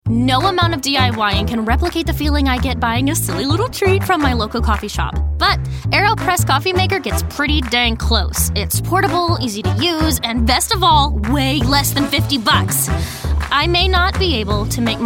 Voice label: Commercial